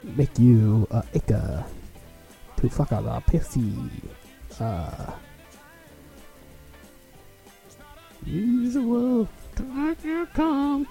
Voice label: welsh